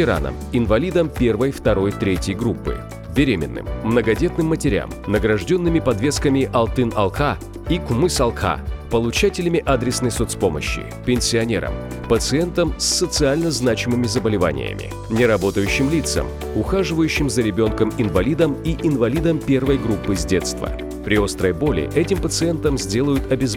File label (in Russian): аудиоролик СТОМАТОЛОГИЧЕСКАЯ ПОМОЩЬ РУС